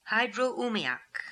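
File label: "Hydro Oomiak", pronunciations; Fourth Way; Beelzebub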